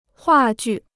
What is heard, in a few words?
"话剧", Chinese